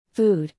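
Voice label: "food", English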